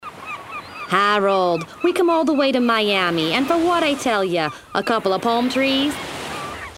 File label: Miami Beach lady